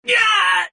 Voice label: pain severe; Adachi